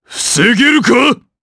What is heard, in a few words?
Vox Skill jp; Bernheim; kingsraid